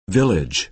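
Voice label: "village", American English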